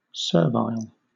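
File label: "servile", British English; pronunciations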